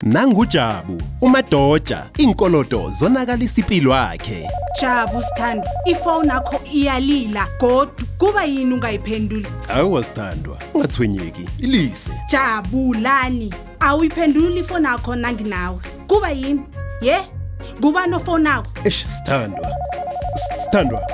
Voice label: Radio Advert Ndebele; NCR Budget Save